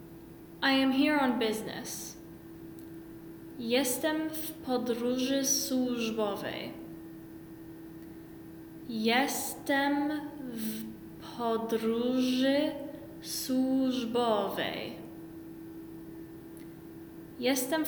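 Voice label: I am here on business, Polish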